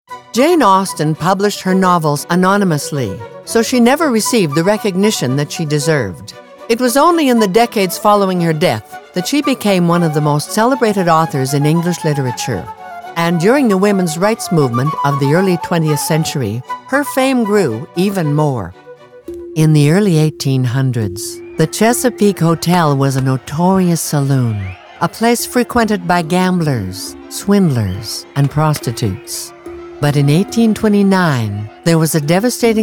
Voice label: Narration